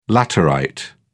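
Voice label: "laterite", British English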